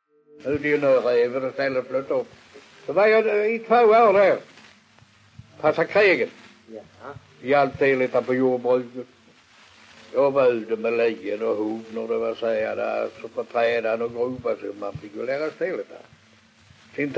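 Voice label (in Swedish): Allerum Skåne, dialekter svenska sagor; Folkminnen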